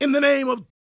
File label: PREACHIN, Gospel, PREACHER; ILIO; Vocal Planet